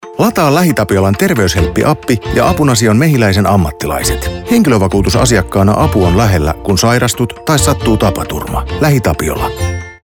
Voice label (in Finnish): radio; terveyshelppi